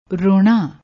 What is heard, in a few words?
"rona", Punjabi